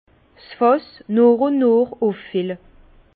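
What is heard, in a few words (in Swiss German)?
"nooch", Alsace Bas Rhin; vin; ouillage remplir le tonneau au fur et à mesure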